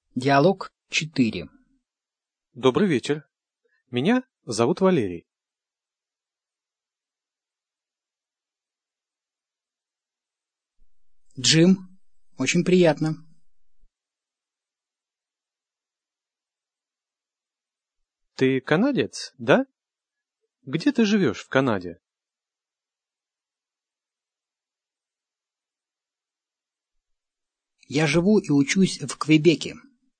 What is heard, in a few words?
dialog, russian